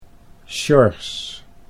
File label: "Sjors" Dutch